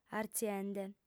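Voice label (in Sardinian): "artziende", lingua sarda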